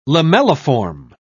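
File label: "lamelliform", British English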